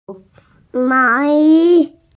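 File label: "sweater", hearing impaired; mao2yi1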